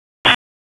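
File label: Sheriff's Office Dispatch 1, p25; wake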